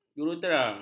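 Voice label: "jurutera", Malay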